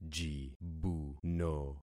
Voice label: "jibuno", concat syllables; Affixing experiment